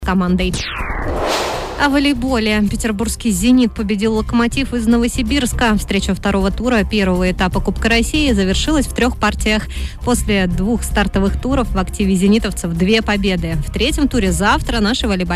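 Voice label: on-air recording; Zapis efira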